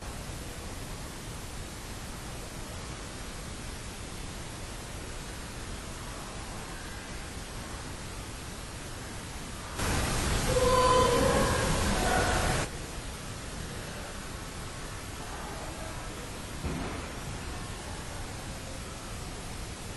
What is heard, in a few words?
Opera House Balcony LITTLE GIRLS